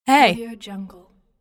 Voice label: female voice hey